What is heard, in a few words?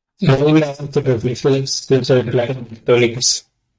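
"nada", Spanish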